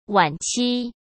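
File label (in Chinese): "晚期" Mandarin Chinese; hsk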